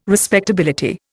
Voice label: "respectability", Indian